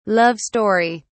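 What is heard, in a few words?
"love story", British English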